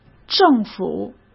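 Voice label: "政府", Mandarin Chinese; hsk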